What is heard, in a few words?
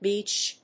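"beatch", English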